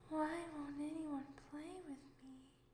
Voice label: Ghostly Why